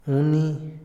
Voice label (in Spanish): dar, Otomí, hombre; Posesión; INALI